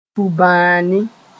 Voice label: "tubãani", Mooré